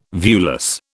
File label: "viewless", British